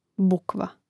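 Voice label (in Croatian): "bukva", Hrvatski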